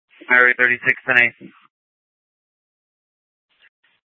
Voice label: Superstition Dispatch, Mesa PD